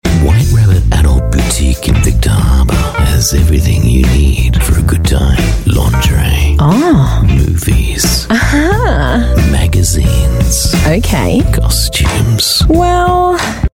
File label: AU English; White Rabitt Adult Store